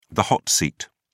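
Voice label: "hot seat", British English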